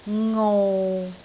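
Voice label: "ngou6", Cantonese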